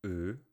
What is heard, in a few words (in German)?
"Ö", Deutsch; Deutsch lernen online; Sprakuko